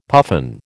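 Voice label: "puffin", American English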